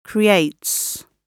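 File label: "creates", British English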